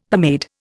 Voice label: "pomade", Indian; Dictionary